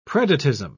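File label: "predatism", British English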